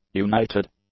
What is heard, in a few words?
"united", English; adjectives